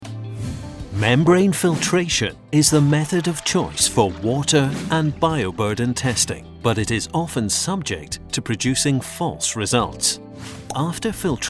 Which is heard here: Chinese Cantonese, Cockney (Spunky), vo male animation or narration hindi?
vo male animation